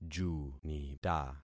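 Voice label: "junita", concatenated syllables; Affixing experiment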